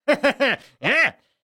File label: Speech Quick Commands Caramba; World Of Warships